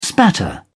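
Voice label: "spatter", British English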